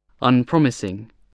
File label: "unpromising", English spelling voice